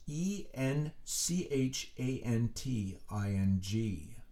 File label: Enchanting, letters being spelled out